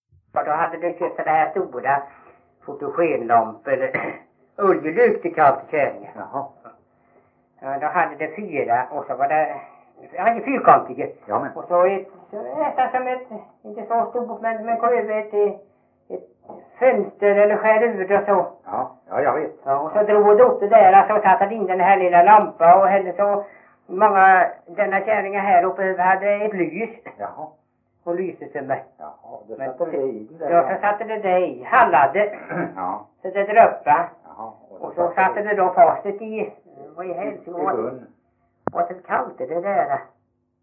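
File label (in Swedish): Veddige Halland, Svenska sagor dialekter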